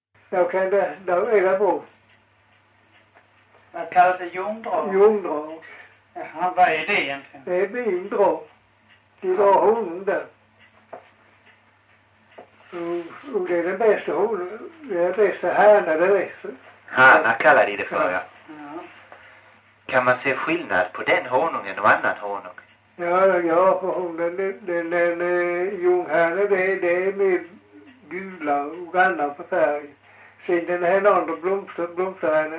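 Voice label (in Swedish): Farstorp Skåne, dialekter; Folkminnen; Svenska sagor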